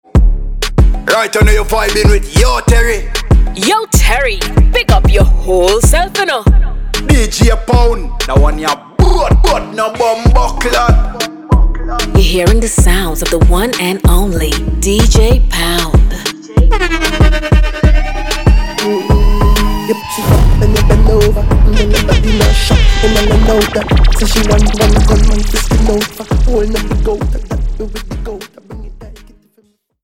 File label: Jamaican male and Trini female dj drops; jamaican male trini female dj drops bundle